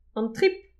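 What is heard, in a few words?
"an trip", Breton; petit precis de prononciation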